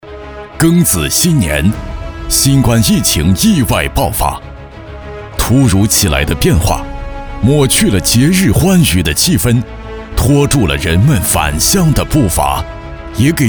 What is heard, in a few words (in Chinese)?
男, 宣传片, 大气有力